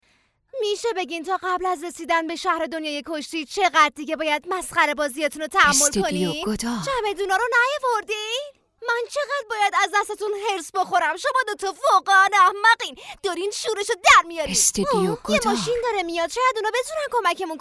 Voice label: dub